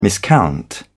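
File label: "miscount", British English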